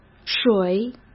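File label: "水" Mandarin Chinese; chinese flashcards; hsk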